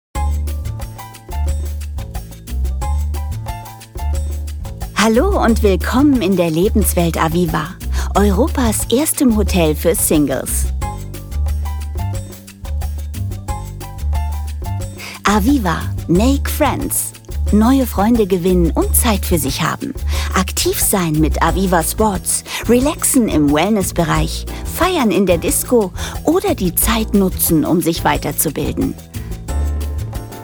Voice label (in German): Telefon